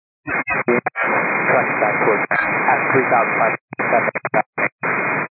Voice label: 122.925 MHz; scanner radio